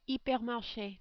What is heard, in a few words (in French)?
"hypermarche", French